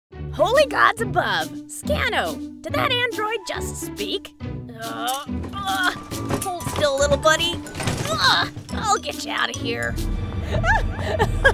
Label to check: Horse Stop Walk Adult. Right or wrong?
Wrong